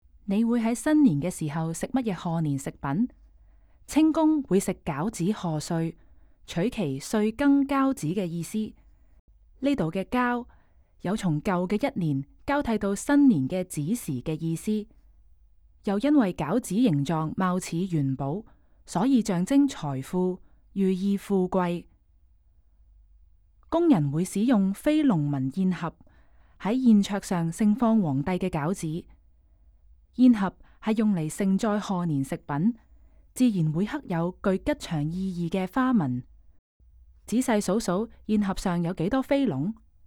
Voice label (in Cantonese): Can VO; from dawn to dusk